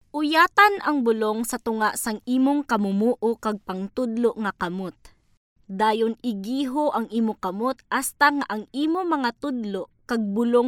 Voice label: Ilonggo Voice Sample